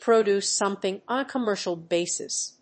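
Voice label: "produce something on a commercial basis", English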